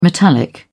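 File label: "metallic", English, British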